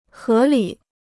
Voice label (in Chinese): "合理", Chinese